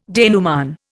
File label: "denouement", Indian